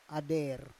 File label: "ader", Roon